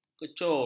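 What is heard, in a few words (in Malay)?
"kecur", Malay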